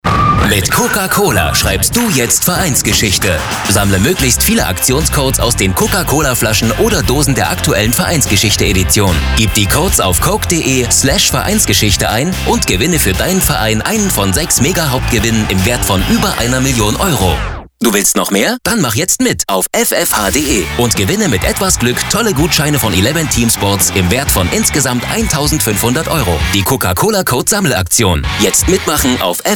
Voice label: Radiospot; CocaCola

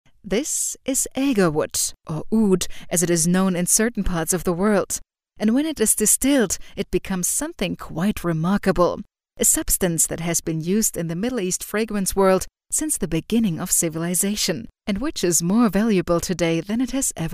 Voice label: Englisches

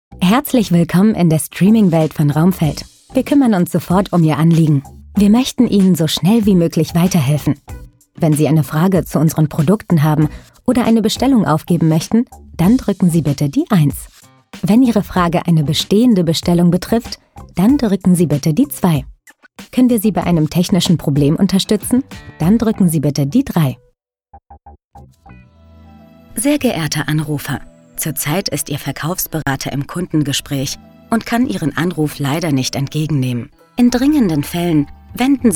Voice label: Telefonansage

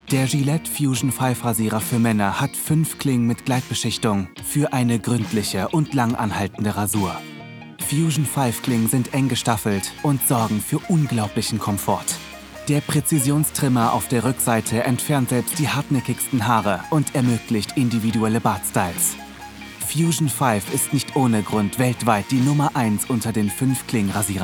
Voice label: Imagefilm

